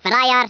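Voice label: "Traitor", Afrikaans accent; Speech; International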